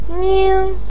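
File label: "ning", Mandarin Chinese first tone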